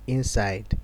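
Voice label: "inside", Nigerian Pidgin, male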